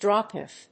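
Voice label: "drawknife", English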